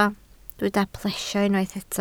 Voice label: "plesio", Welsh

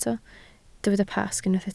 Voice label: "Pasg", Welsh

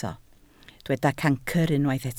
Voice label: "cancr", Welsh

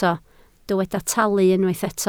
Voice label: "talu", Welsh

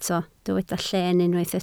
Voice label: "llen", Welsh

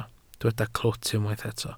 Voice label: "clwt", Welsh

